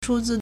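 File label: "chūzī", Chinese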